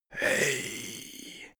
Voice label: male creepy hey